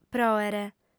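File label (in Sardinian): "pròere", female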